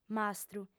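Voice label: "mastru", female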